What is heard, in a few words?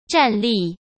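"站立", Mandarin Chinese; chinese flashcards; hsk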